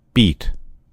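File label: "beat", American English; gcompris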